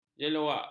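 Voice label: "jeluak", Malay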